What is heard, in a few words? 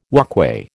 "walkway", American